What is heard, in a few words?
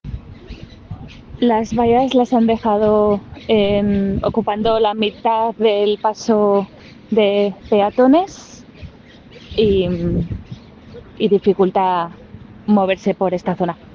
Voice message